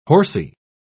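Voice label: "horsy", British English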